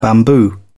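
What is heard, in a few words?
"bamboo", British English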